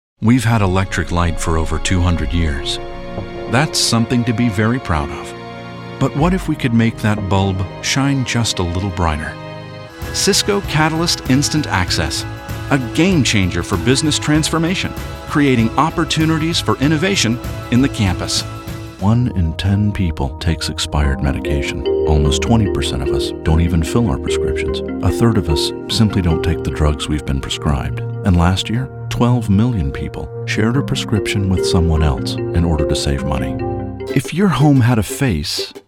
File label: Commercial Demo